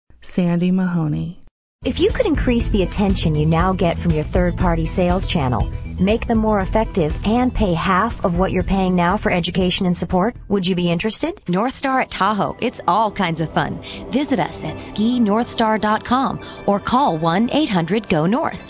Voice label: female voice